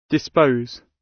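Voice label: "dispose", British English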